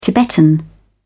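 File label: "tibetan", British English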